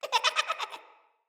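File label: Idle Corrupted Sprouts, en; Dialog; Battle Barks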